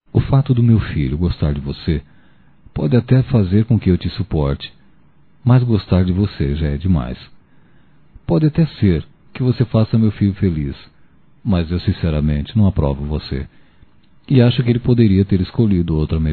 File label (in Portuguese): Sogro Para Nora Masculino, Broncas; Telemensagens 2017